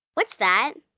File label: "Whats That", Questions, 1 girl, 9 yrs.; Talking